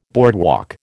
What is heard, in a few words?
"boardwalk", American